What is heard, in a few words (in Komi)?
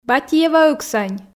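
Komi, female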